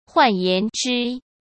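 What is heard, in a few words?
"换言之", Mandarin Chinese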